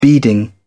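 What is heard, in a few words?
"beading", English, British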